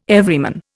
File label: "Everyman", Indian